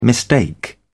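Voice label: "mistake", British English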